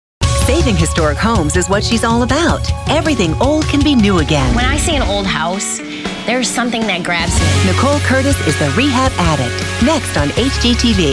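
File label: US English, promos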